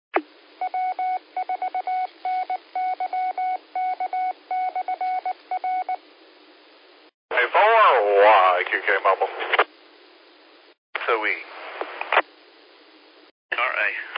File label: qso rec simplex logic